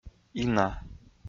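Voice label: "ина", Komi, male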